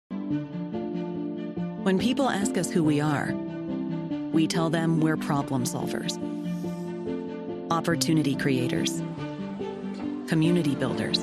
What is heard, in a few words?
radio ad; we are rotary